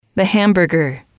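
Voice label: "the hamburger", English, female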